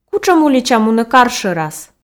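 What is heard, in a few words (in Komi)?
кутшӧм улича мунӧ кар шӧрас, Komi, female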